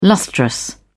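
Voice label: "lustrous", British English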